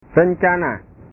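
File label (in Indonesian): "rencana", Indonesian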